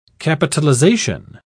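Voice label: "capitalisation", British English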